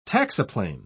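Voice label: "taxiplane", British English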